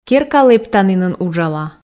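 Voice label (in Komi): "керка лэптанінын уджала", Komi, female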